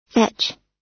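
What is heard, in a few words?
"fetch", American English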